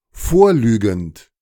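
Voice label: "vorlügend", German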